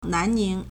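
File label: "nan2ning2", Mandarin Chinese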